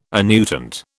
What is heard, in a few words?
"annuitant", British